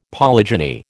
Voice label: "polygeny", American